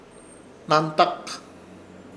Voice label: "Hnantak" tabaco, Ishir Chamacoco